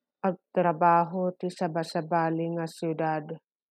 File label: Ilocano